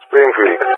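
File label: "Springfield", English speech; Allied Flight; CallSign; DCS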